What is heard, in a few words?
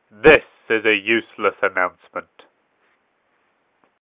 useless announcement